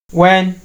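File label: "when", Nigerian Pidgin, male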